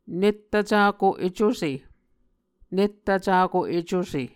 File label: "nettvcako ecuse", Muscogee; Mvskoke Words with Voicing Consonants; Nettvcako-Ecuse c / j; Martin, Jack B., and Margaret McKane Mauldin. 2023. A Dictionary of Creek/Muskogee